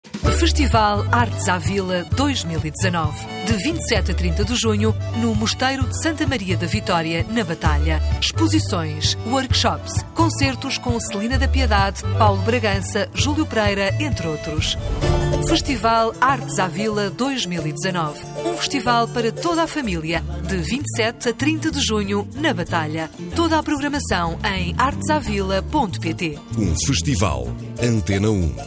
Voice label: spot; artes a vila 2019